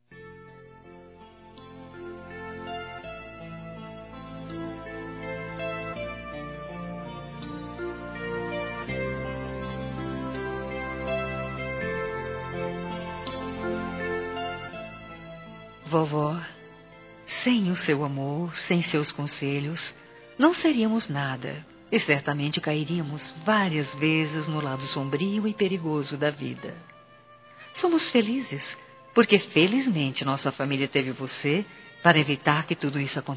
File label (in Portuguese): Aniversário Avó Feminino